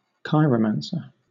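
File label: "chiromancer", British English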